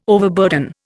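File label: "overburden", Indian English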